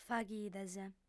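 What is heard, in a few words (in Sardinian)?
"faghides", female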